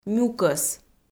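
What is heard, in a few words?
"нюкӧс", Komi, female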